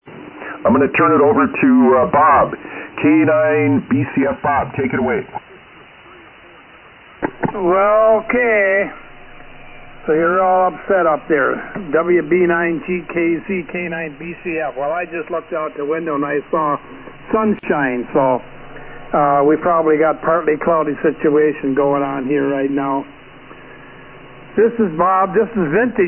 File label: Yaesu FT-101EE Yaesu YD-844 Mic Homebrew Amp; Greenleaf, Wi.